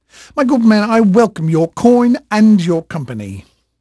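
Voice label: English male, voice; Pirates Of The Caribbean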